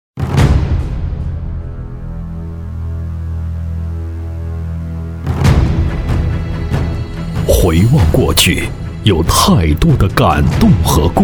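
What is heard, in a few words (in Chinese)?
国语 男声 宣传片 激昂浑厚大气 大气浑厚磁性 沉稳; 长水精神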